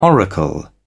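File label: "oracle", British English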